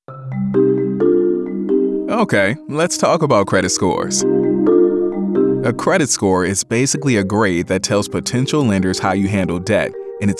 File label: Credit Score eLearning